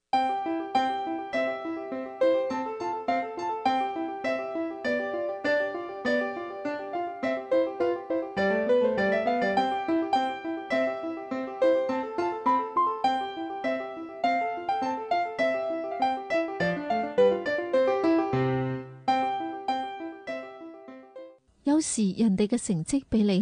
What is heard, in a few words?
cantonese; Child Bible Story